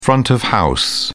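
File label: "front of house", British English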